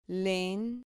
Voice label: "len", Spanish syllable